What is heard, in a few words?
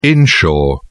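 "inshore", British English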